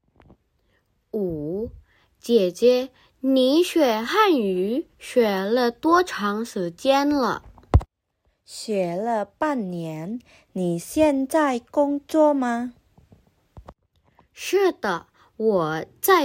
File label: Mandarin; mandarin placement test hsk2